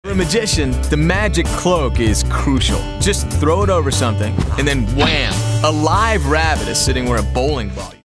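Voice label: anti announcer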